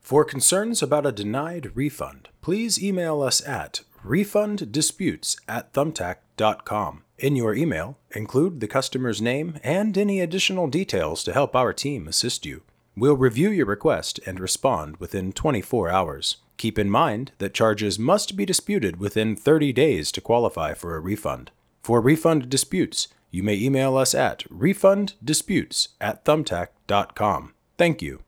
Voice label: Refund 24 Hour, Professional announcement regarding 24-hour refund turnaround time; Refund 24 Hour TAT; Customer Service; Customer Service Thumbtack